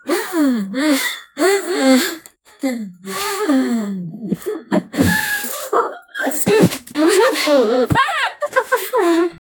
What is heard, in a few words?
two girls fiercely exchan, fight